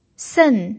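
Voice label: "sen" Mandarin Chinese, fourth tone, pinyin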